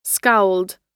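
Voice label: "scowled", American English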